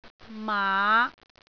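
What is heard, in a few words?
"maa5", Cantonese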